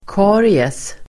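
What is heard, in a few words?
"karies", Swedish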